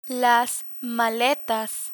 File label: "maletas", Spanish; learn spanish